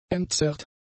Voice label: "entzerrt", German; adjectives